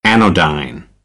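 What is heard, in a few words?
"anodyne", American English; AmericanBritish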